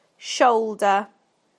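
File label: "shoulder", British English; PictureDictionary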